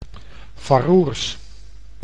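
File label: "Faroers", Dutch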